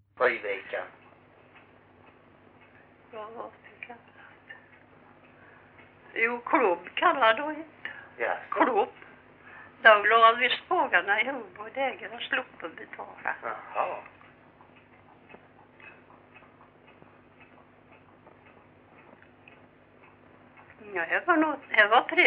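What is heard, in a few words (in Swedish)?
Grimeton Halland, dialekter; Folkminnen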